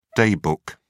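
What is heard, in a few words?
"day book", English, British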